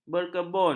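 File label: "berkebun", Malay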